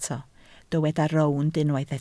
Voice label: "rownd", Welsh

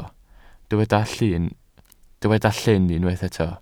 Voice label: "llyn", Welsh

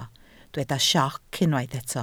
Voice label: "sioc", Welsh

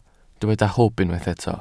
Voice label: "hwb", Welsh

